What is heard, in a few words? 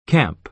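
"camp" American English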